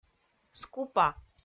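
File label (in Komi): "скупа", Komi, female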